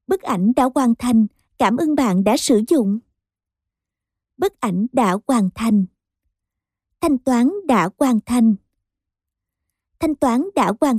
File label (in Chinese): 越南语女 外语 小语种